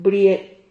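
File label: "brie", Spanish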